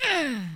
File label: Hurt; OpenClonk